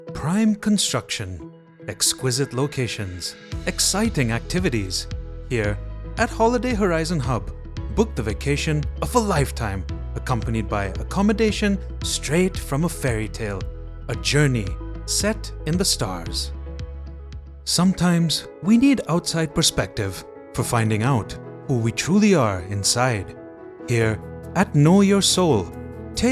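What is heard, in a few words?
Commercial, English Voice Over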